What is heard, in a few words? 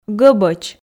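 "гӧбӧч", Komi, female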